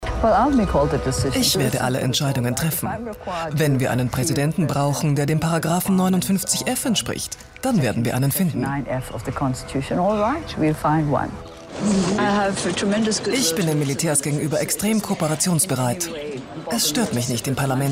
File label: Voiceover; ORF